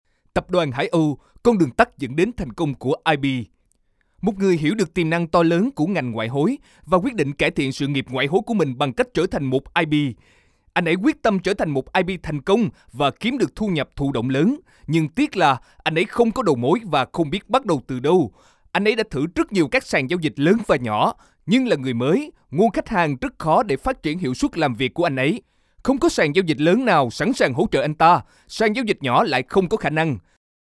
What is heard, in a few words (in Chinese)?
越南语男, 外语, 小语种